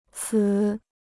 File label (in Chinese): "此", Chinese